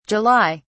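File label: "july", British English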